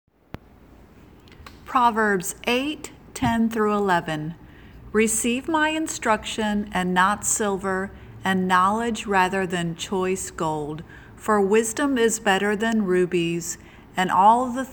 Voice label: Childrens Bible Verse